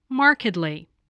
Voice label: "markedly", spelling voice